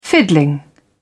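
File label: "fiddling", English, British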